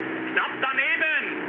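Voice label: German bomb failure mood low, speech; War Thunder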